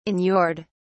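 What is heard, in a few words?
"inured", English, uk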